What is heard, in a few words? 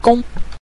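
"gong", Mandarin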